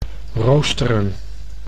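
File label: "roosteren", Dutch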